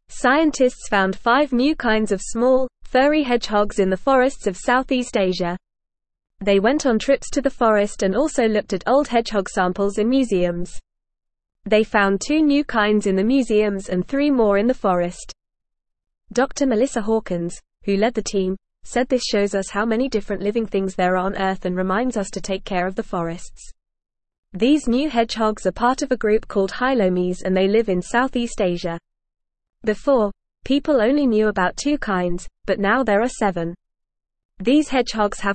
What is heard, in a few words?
English Lower Intermediate FAST Reading; New Animals Found in South East Asia Forests; English Newsroom